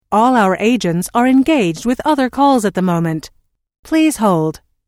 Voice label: All Our Agents Are Engaged With Other Calls At The Moment Please Hold, Telephone prompt; Pre-recorded telephone